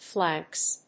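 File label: "flanks", English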